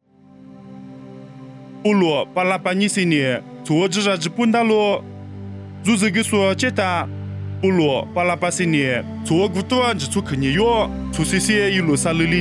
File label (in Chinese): 凉山彝语; 地中海耶路撒冷; nuosu